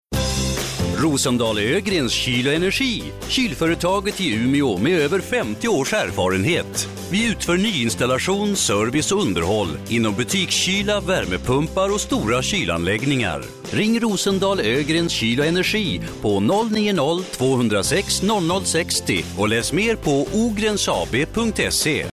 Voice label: Radio Promo

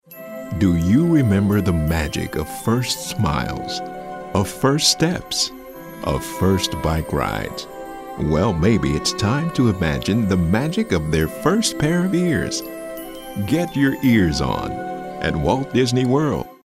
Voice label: older mature

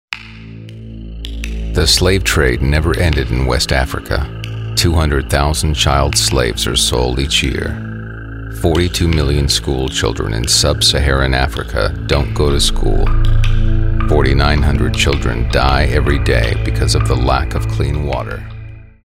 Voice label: authoritative

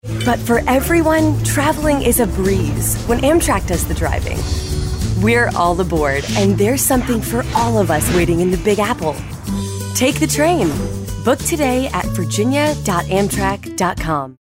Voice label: conversational

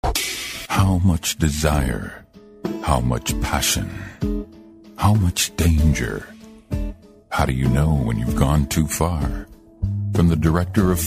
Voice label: movie trailers

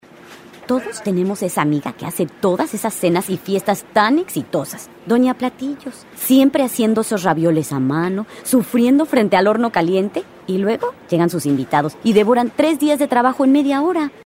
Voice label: Political Spanish

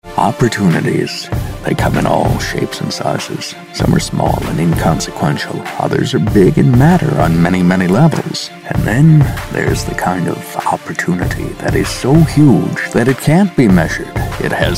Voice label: raspy gravelly confessional

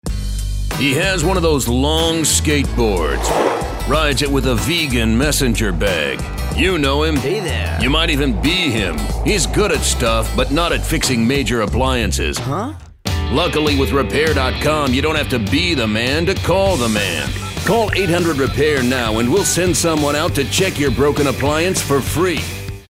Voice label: attitude cool anti announcer biting

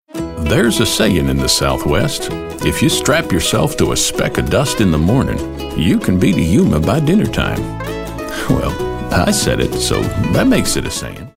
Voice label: Southern

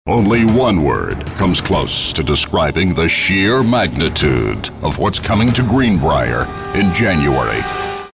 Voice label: tough gritty

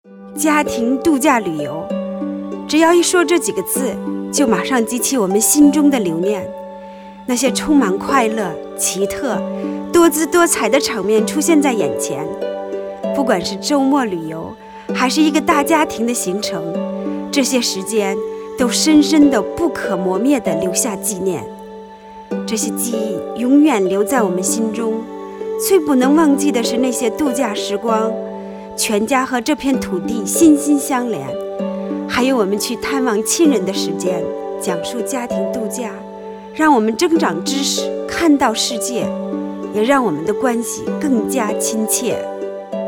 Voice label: Chinese Mandarin; Closer Together

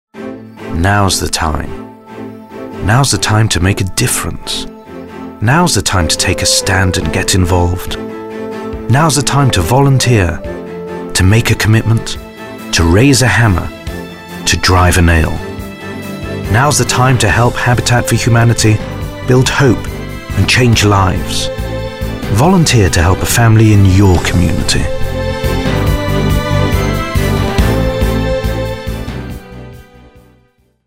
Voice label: thoughtful

